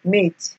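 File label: "mate", Nigerian Pidgin, female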